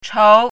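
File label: "chou2", Mandarin Chinese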